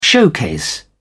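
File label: "showcase", British English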